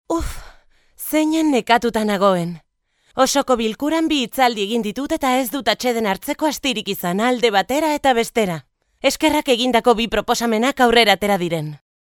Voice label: locutora euskera voiceover